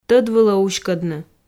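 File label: "тӧд вылӧ уськӧдны", Komi, female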